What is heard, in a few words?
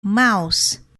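"mouse", English word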